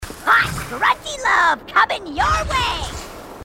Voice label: grunt; h2dialogue; grunt crazy